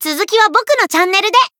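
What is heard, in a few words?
Voice win second, Japanese; ciel; zodiac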